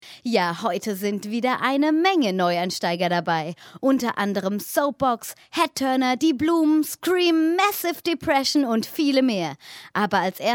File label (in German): Moderation